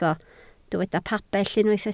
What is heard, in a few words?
"pabell", Welsh